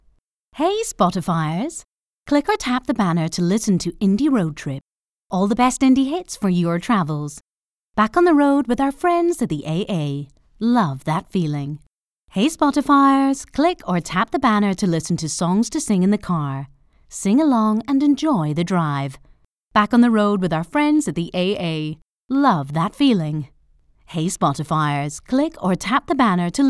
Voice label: Soft Scottish